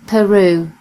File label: "peru", en GB; gcompris